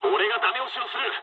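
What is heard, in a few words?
Japanese player killed enemy mood low; War Thunder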